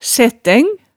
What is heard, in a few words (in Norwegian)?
"sættæng", hemsedalsmaalet, tale